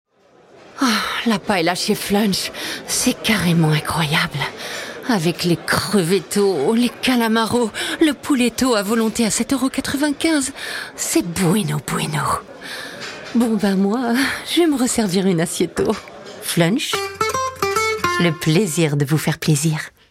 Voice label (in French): pub comedie; flunch crevettos